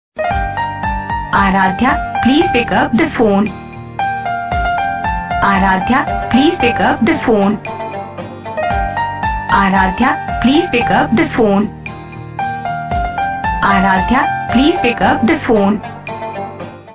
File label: aradhya naam ringtone aradhya calling